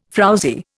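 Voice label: "frowzy", Indian English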